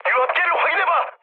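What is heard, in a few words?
Korean damaged oil mood med, speech; War Thunder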